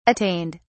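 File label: "attained", English, British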